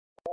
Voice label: Muffled Talking, boy on phone